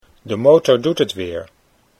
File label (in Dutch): de motor, Dutch